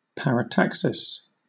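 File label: "parataxis", British English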